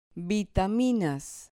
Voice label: "vitaminas", Spanish